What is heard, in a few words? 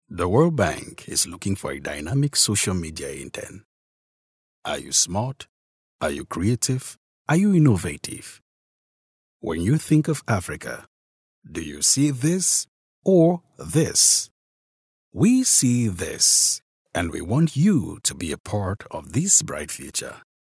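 English African male Commercial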